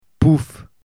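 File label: "pouf", French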